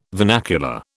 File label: "vernacular", British